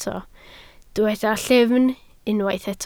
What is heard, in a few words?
"llyfn", Welsh